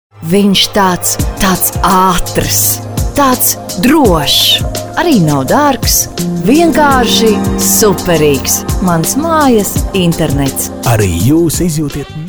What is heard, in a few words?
premium female, balsai latviu moteris